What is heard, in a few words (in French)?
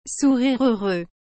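"sourire heureux", French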